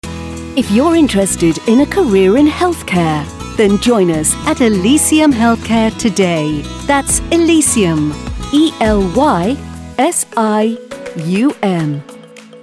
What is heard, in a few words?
advert; Weather ELY RECRUITMENT